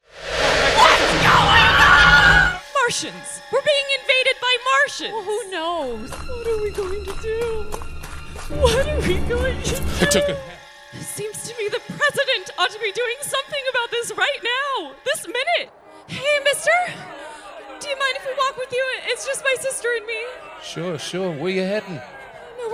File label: VO reel